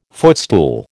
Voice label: "footstool", American